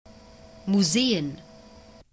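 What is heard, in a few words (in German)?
"Museen", German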